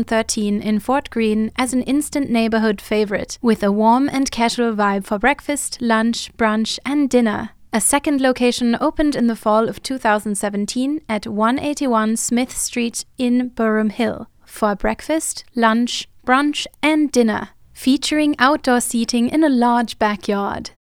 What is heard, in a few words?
German Accent Promo